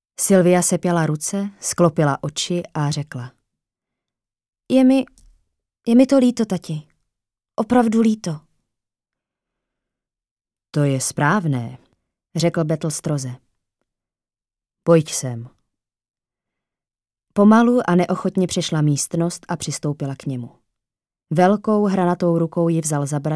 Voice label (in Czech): ukazka audio kniha